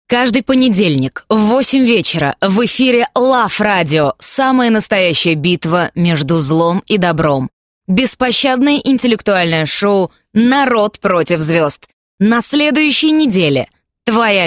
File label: Rus